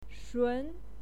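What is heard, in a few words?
"shun", Mandarin Chinese, second tone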